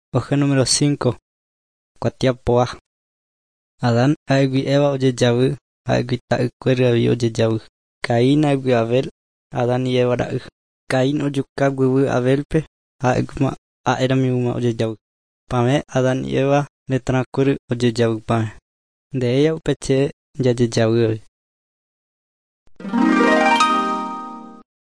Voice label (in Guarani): guarani mbya; good news and words of life; bible